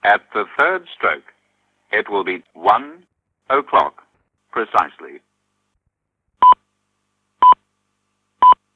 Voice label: audio UK AUS; time of day announcement device; TIM 2015; self contained time of day announcement device